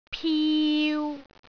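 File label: "piu3", Cantonese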